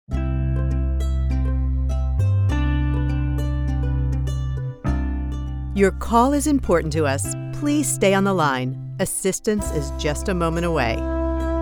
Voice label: Music On Hold Multi